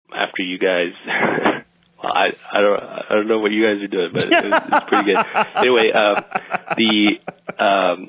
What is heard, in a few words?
laughing saying after you guys i dont know what you guys were doing